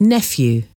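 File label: "nephew", English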